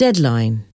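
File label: "deadline", English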